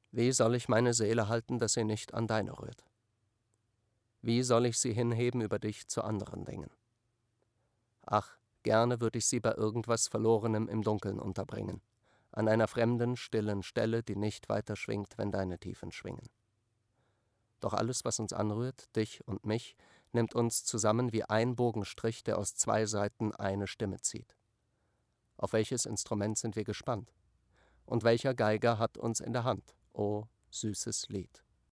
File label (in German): Sprecher Emotion Neutral